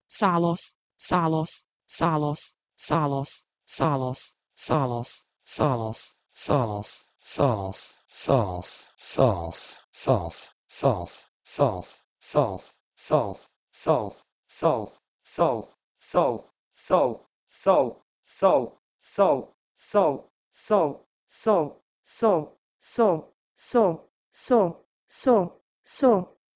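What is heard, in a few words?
Proto-Indo-European "sh2los" to Bosnian "so"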